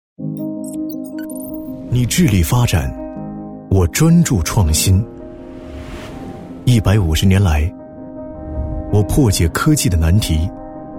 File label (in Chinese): 男, 沉稳 洋气, 大气主流 年轻时尚; 西门子中国品牌宣